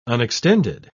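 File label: "unextended", English, uk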